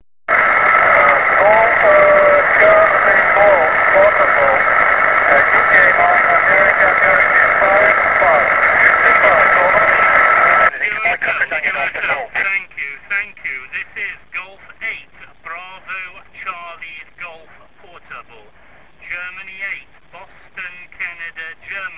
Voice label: QSO